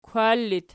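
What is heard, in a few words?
"kwar" locative, Archi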